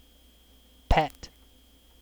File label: "pet", en; kdeedu; klettres